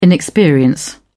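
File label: "inexperience", British English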